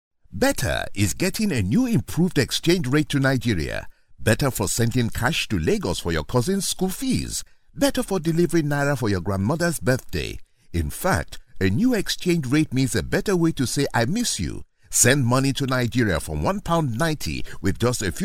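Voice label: English, British, male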